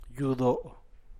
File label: "yudo", San Mateo Macuilxochitl Zapotec, church; iglesia